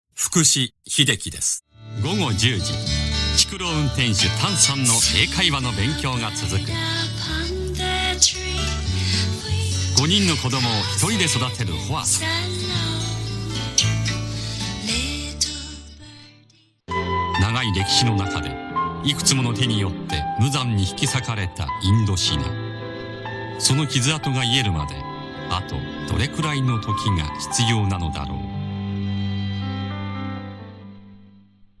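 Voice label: male tv program, English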